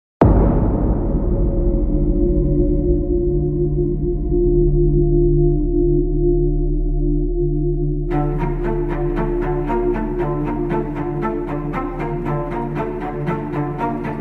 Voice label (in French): droit efficace, Voix off documentaire; LIDO MACHINERIE XXL droit, efficace